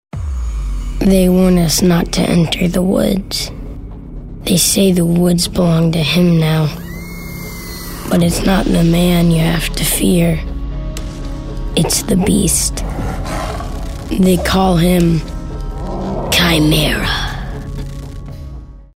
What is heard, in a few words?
Ominous